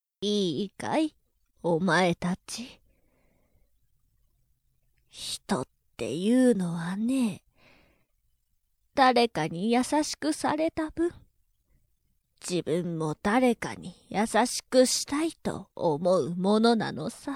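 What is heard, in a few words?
voice old woman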